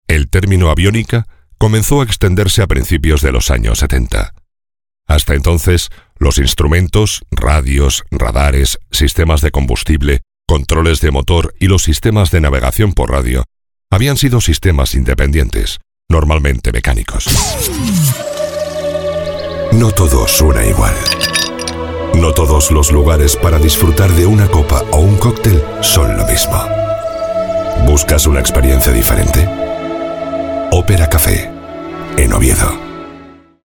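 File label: male Castilian Spanish voice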